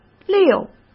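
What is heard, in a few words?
"六" Mandarin Chinese; hsk; chinese-flashcards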